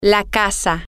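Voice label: the house, spanish, female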